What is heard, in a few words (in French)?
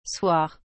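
"soir", French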